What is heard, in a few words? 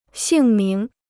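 "姓名", Chinese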